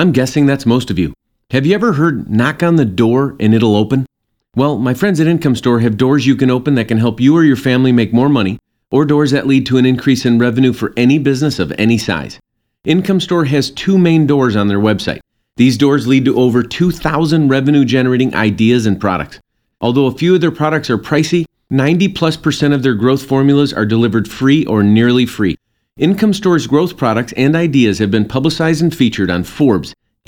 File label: Commercial; Income Store Door